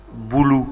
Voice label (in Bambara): "bulu", Bambara; nature